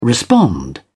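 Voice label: "respond", British English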